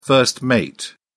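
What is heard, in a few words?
"first mate", British English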